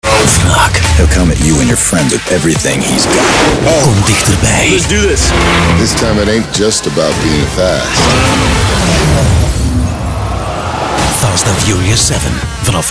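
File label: Belgian movietrailer, flemish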